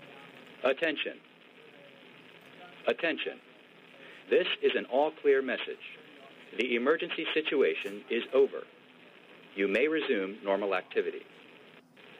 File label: All Clear, Emergency Notification System; PennSOS; Penn Siren Outdoor System